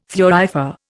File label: "thurifer", Indian